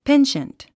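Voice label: "penchant", English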